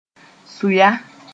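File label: "sʋya", Mooré; locusts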